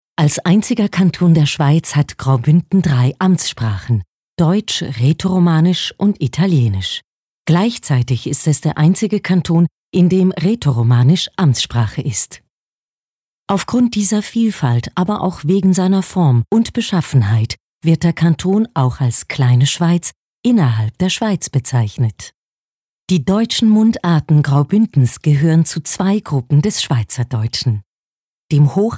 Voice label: neutral Hochdeutsch; DOC “neutral”

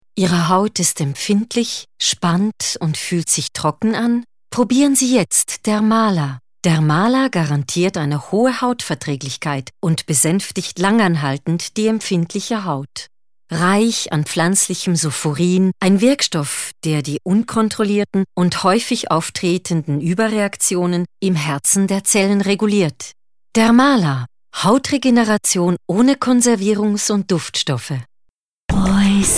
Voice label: Promotion neutral Hochdeutsch

